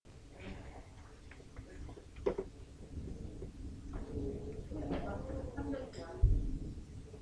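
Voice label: "bicycle", spoken word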